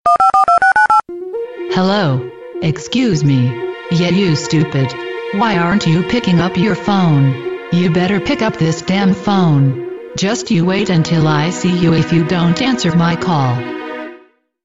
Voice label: Annoying person

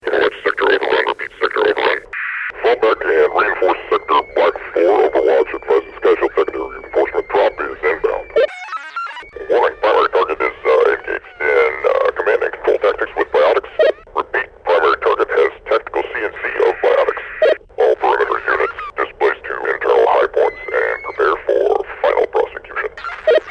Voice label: Radio Chat; Combine

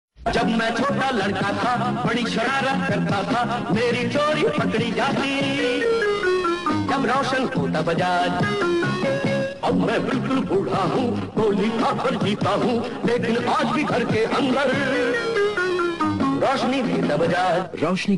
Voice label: Funny indian Bajaj Ad

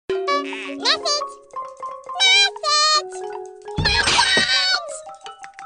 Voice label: Very Funny Chipmunk

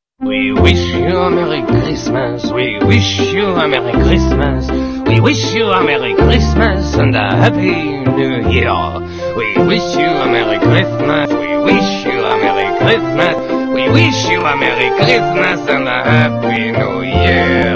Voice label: Merry Xmas French

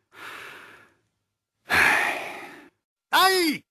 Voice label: Loquendo

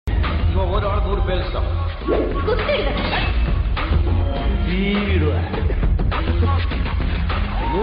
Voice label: Dialog; Rajini Aish

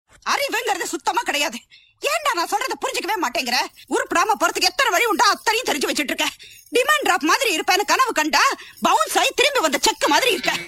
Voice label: Scolding Amma

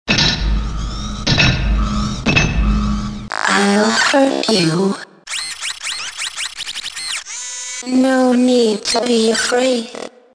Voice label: Female Robot Voice

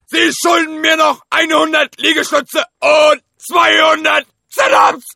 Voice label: Drill Sergeant

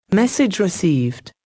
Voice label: Text Message -Female; meloboom

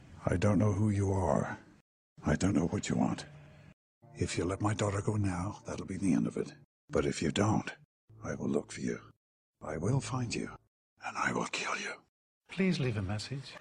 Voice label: Please Leave A Msg

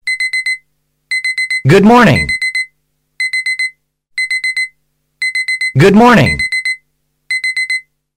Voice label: Wake Up Male

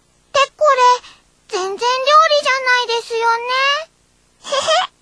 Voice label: Kawaii Voice

